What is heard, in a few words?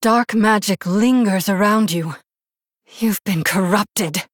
Human Female; Jaina